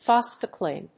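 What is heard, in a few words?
"phosphocholine", English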